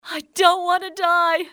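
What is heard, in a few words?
dont wanna die, english, female; XBOX; civ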